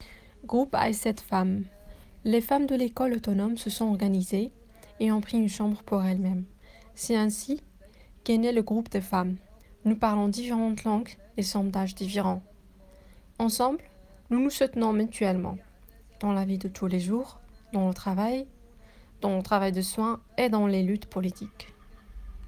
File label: french